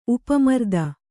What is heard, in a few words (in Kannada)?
"ಉಪಮರ್ದ", Kannada